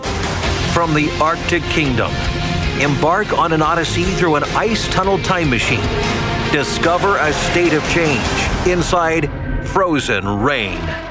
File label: english american movie trailers